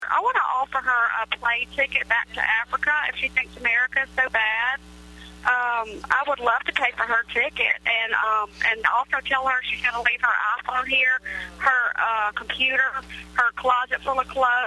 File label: Voicemail